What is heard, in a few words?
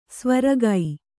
"ಸೈವೆರಗು", kannada